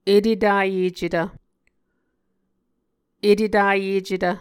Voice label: "etetayecetv", muscogee

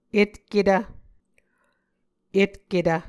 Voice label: "etketv", muscogee

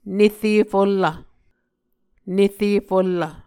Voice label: "nere fullv", Muscogee

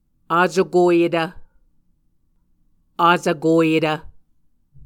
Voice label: "acokoyetv", Muscogee

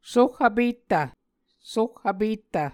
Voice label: "soh vpettv", Muscogee

